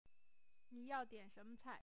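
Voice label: cai4 sentence, Chinese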